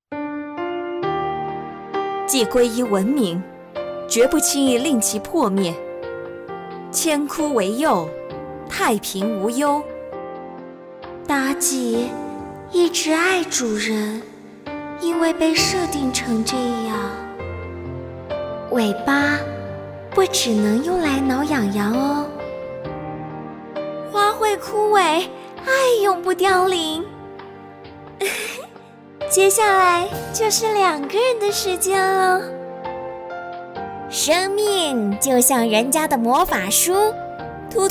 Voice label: 女英雄配音; 王者荣耀

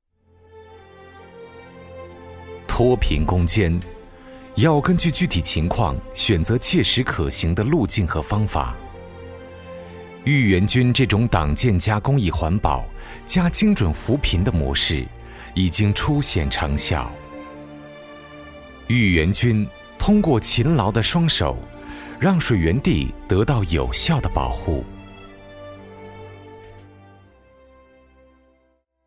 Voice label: 党政汇报讲述; 脱贫之路样音

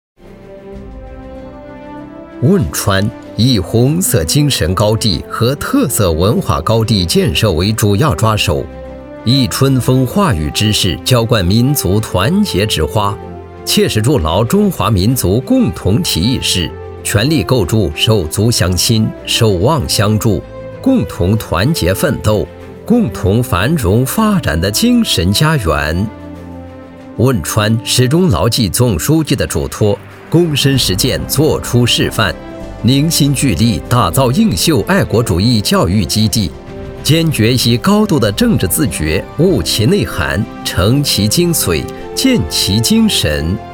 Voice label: 政府专题样音大气稳重

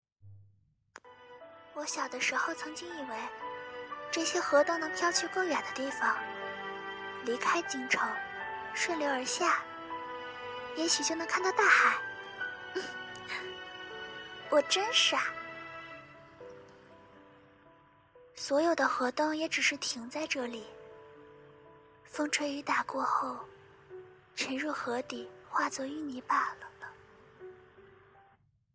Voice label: 少女音

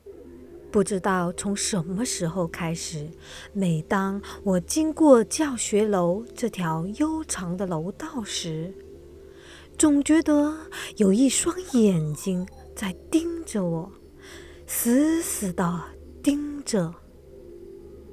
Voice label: 有声小说 演播 恐怖 悬疑

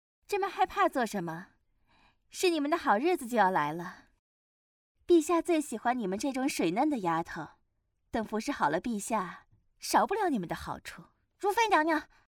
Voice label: 妩媚 妩媚 歹毒 贵妃

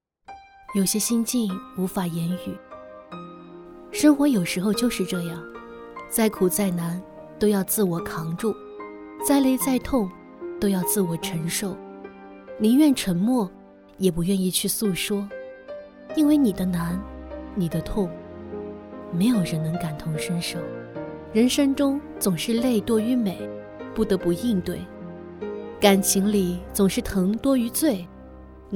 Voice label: 情感电台

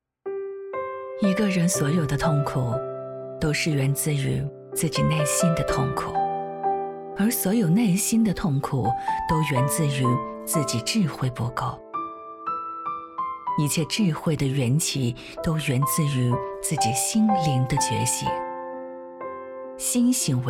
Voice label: 宣传片

